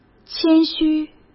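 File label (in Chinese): "谦虚", Mandarin Chinese; hsk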